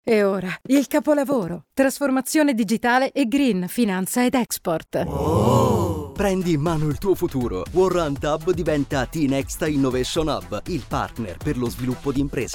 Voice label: radio; LINEA; THE FUTURE GALLERY; campagna rebranding 2025